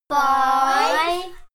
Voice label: kids counting "five"